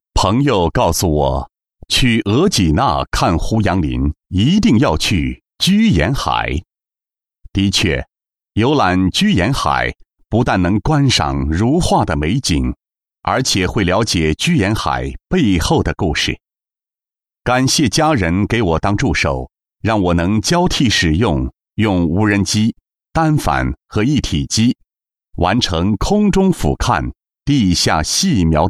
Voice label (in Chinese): 男-电视散文; 居延海解说